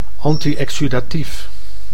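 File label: "anti-exudatief", Dutch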